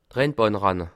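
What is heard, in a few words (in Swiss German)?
"rennbahn", Bas Rhin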